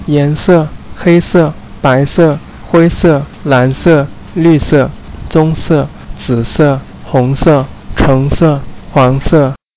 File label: chinese; colors